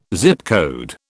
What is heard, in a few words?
"Zip code", British